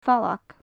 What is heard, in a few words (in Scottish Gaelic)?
"fallag", gaelic; sand martin; gobhlan-gainmhich; gobhlain-ghainmhich, fallagan; Faclan Nàdair